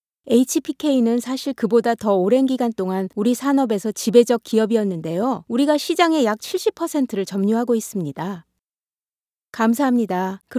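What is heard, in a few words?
korean female character; voice demos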